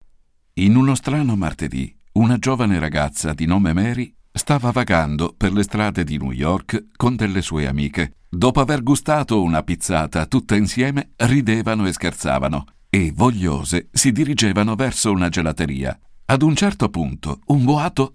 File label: Straight Narration Voice Over